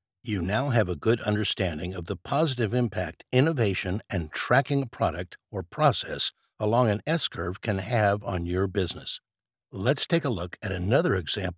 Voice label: e-learning